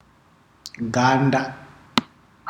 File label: "skin person's", ndau